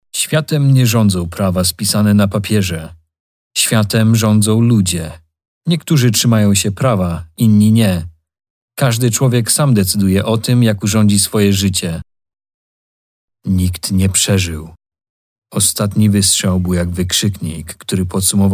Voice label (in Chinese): 波兰语男年轻自然讲述; 波兰语男4-年轻-自然讲述